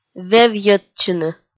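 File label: "вевйӧдчыны", Komi, female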